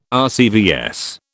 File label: "RCVS", British